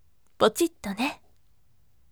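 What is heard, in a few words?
"pochittone", firm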